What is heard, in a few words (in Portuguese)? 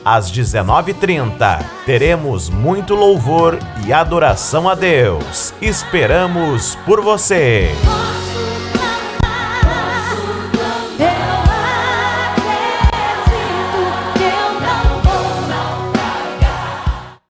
Locutor Igreja Batista Nacional; Monte Horebe; Irajuba BA